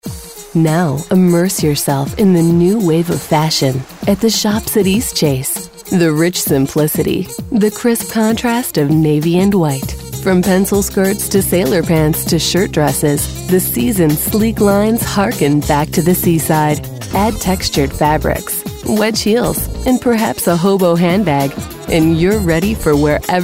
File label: Sophisticated